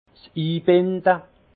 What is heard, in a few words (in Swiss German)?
"inbinde", alsace; vin; fond poser le